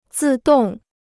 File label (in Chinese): "自动", Chinese